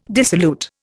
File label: "dissolute", Indian